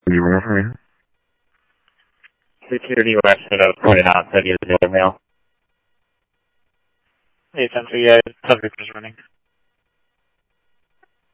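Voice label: Mesa Simulcast Police Department; MESA PD 1 Fiesta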